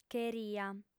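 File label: "cheria", female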